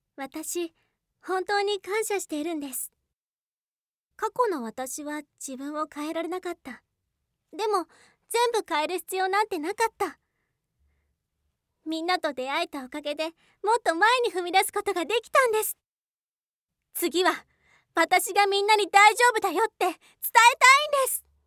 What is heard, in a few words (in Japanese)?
女の子